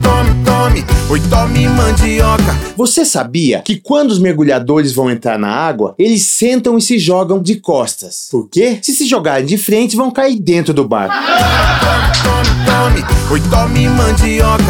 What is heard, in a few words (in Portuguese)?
spot piada